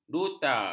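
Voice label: "duta", Malay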